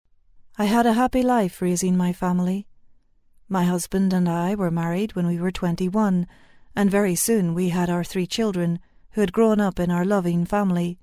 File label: UK Voice Narration